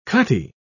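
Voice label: "cutty", British English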